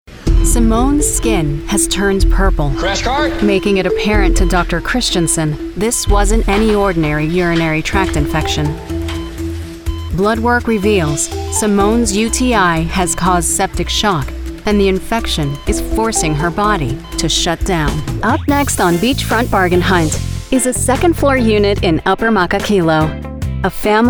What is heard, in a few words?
English In-Show Narration